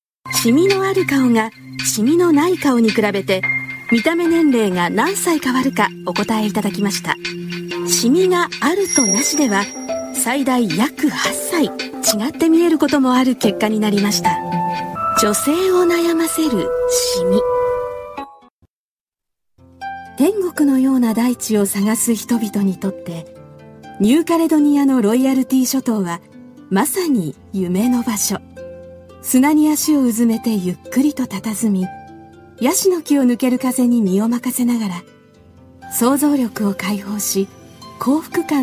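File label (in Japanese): 日本語ナレーター, 親しみやすく分かりやすい語り; ラジオのパーソナリティやスポーツアナウンスで培われた、親しみやすく分かりやすい語り; GAIKEI Models & Narrators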